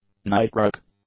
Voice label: "nitric", english; adjectives